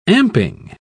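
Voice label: "amping", British English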